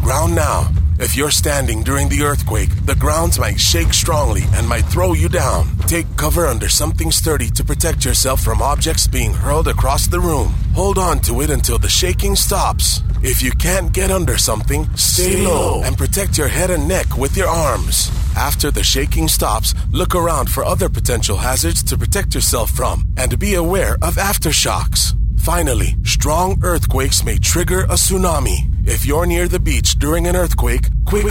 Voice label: Drill Broadcast; Guam